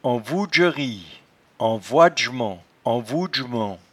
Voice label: "envouedjerie", patois jura

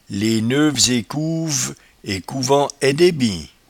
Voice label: "les neuves ecouves", patois jura

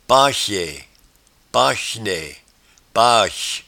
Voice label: "pachee", patois jura

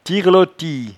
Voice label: "tirelotie", patois jura

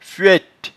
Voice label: "fuette", patois jura

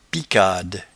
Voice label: "picade", patois jura

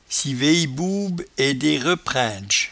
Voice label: "civeyebouebe", patois jura

